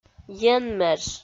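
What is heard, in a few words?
"енмеж", Komi, female; audio dict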